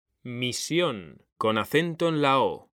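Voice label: "misión", Spanish; mekanta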